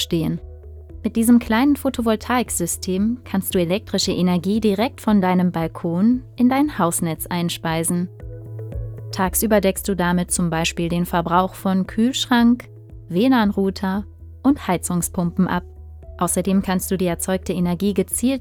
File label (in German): Erklaerfilm Balkonkraftwerke